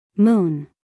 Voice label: "moon" us female; everyone-can-use-english

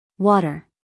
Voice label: "water", us female; 1000 hours

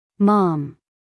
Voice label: "mom", US female; everyone-can-use-english

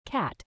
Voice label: "cat" US English, female; everyone-can-use-english

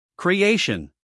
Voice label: "creation", US English, male; everyone-can-use-english

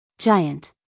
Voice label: "giant", us female

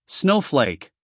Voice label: "snowflake", us male

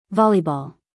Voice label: "volleyball", us female; 1000 hours

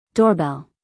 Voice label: "doorbell", US English, female; everyone-can-use-english

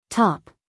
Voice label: "top", us female; everyone-can-use-english

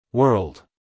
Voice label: "world", us male; everyone-can-use-english

